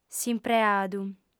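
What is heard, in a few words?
"s'impreadu", female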